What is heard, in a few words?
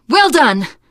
nice job, teengirl; survivor voice